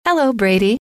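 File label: hello, female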